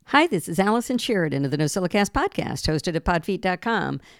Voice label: zoom h4n pro sm58 48khz